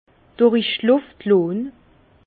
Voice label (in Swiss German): "luft", Bas Rhin; vin